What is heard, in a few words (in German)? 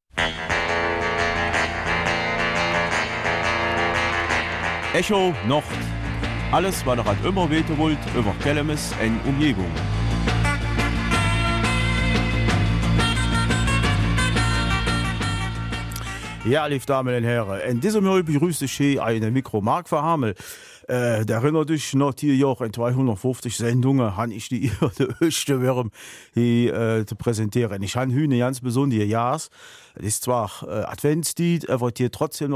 Kelmiser Mundart; Veranstaltung Kelemes sengt Ach wat wohr dat vröher schön